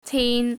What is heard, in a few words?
"tin1", cantonese